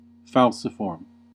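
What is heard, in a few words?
"falciform", US English; pronunciations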